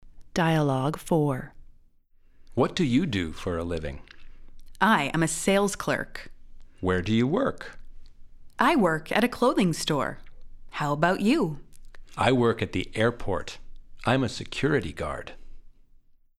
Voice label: Dialogue slow